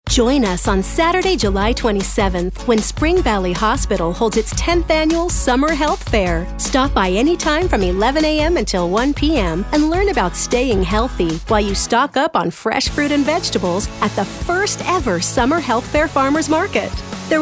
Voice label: upbeat perky